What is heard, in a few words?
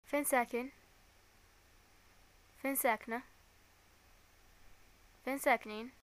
where do u live, arabic, ye